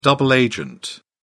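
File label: "double agent", British English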